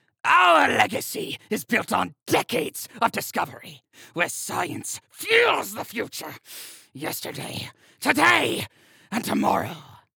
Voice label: Mad Scientist Character